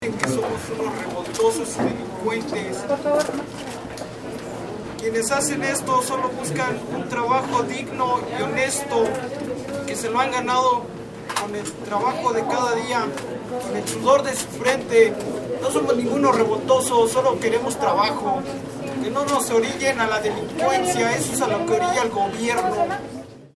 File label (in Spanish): hombre; presas del penal de santiaguito; trabajo digno